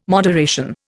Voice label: "moderation", Indian